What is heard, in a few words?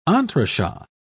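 "entrechat", English, British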